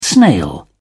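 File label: "snail", British English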